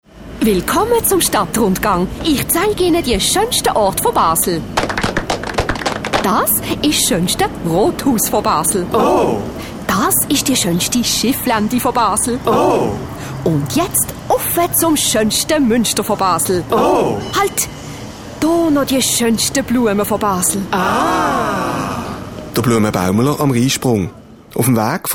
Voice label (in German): Radiospot; BLUMEN BAUMELER